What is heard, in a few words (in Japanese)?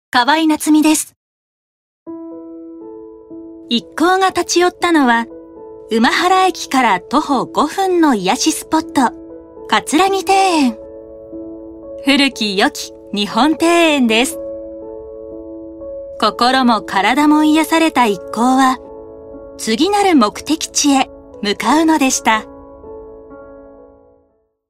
ノーマル